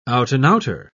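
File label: "out-and-outer", British English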